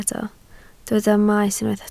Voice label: "maes", Welsh